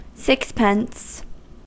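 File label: "sixpence" English, US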